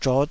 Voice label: "zhot5", taiwanese